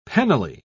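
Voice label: "penally", English, British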